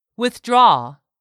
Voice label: "WITHDRAW", English; 3000 words